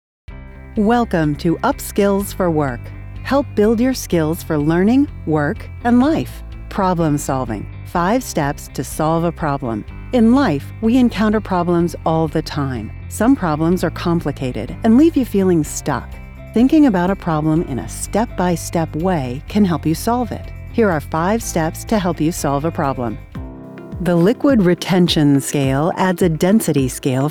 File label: Narration